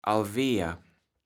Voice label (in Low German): "alwerr", kaevels platt